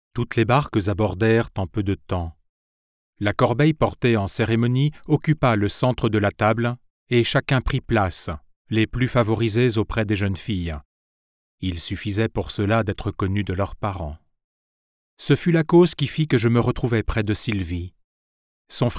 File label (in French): voix off livre audio; gerard de nerval; sylvie